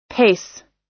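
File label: "pace", US English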